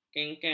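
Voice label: "kengkeng", Malay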